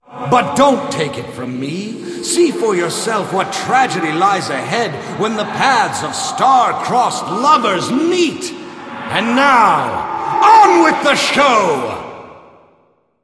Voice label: Announce; Barnes The Stage Manager